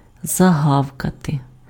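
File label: "загавкати", Ukrainian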